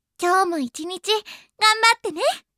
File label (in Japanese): お仕事応援ボイス